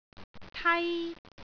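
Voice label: "tai1", Cantonese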